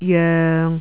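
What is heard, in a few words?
"joeng6", Jyutping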